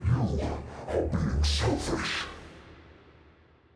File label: Midfight Stereo; NPC; Malachai Combat